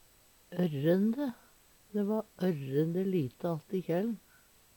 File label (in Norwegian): orrende, Numedalsmål; Dæ va ørrende lite att i kjæl`n.; Adverb